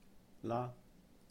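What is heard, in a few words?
"lā", Cantonese; 啦; laa1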